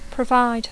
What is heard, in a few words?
"provide", English